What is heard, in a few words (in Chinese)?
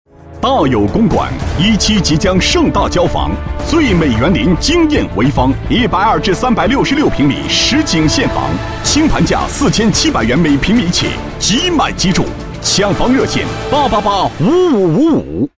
男 品牌, 国语男声配音; 大有公馆